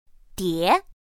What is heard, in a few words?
"dié", pinyin; pinyin2; pinyinji